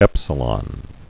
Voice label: "epsilon", Greek alphabet